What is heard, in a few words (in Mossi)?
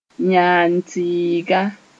"yãnd-tɩɩga", moore